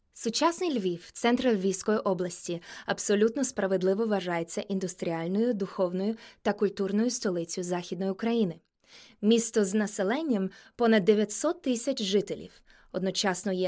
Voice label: female German Russian and Ukrainian Ukrainian commercial; voices; devine voices